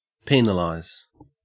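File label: "penalize" English, Australian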